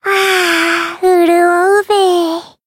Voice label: healed jp